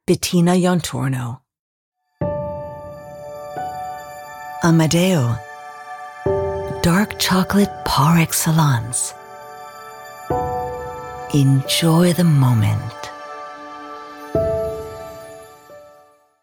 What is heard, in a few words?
Commercial English Chocolate